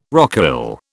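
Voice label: "rocaille", British English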